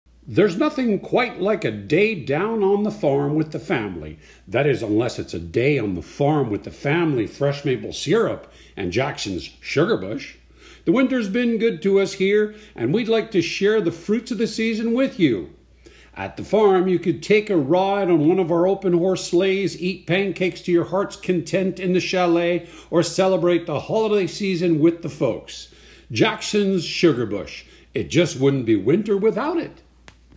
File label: publicite eng